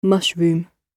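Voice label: "mushroom" British English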